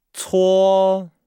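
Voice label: "cuo", Chinese; Nihao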